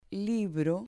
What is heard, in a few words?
"libro", Spanish